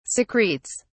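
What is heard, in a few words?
"secretes", British English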